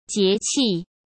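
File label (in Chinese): "节气", Mandarin Chinese; hsk; chinese-flashcards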